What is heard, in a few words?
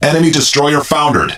Speech Destroy Enemy Destroyer, EN; World Of Warships